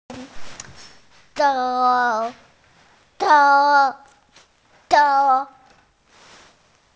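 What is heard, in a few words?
"che1", Mandarin Chinese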